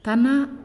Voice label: "Know", Naasioi

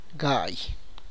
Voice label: "five", tlacochahuaya

